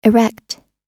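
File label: "erect", English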